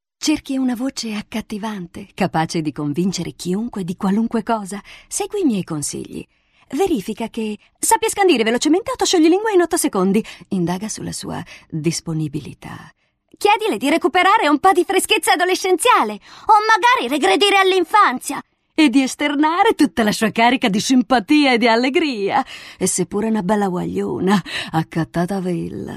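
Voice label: F, Italian, female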